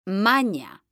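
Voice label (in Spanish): "MAÑA", female; mekanta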